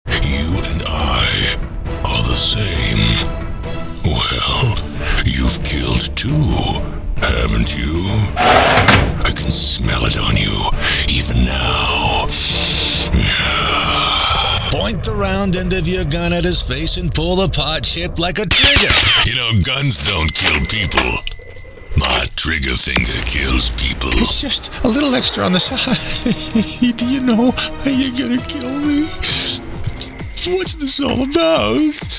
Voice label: oyun, game dubbing male voices american accent